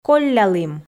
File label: "коллялім", Komi